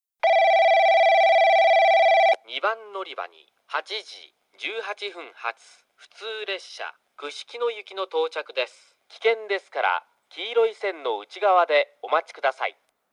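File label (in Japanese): 2番のりば接近放送（串木野行き）, 男声放送; 伊集院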